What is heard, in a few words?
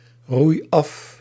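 "roei af", Dutch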